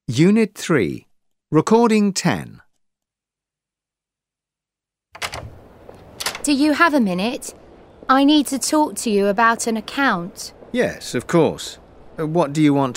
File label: English e-learning; VUB